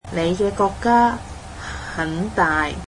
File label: cantonese your country is big